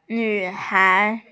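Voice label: "nv3hai2", Mandarin Chinese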